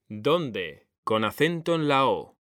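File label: "DÓNDE", Spanish, male; mekanta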